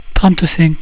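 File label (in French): "trente cinq", French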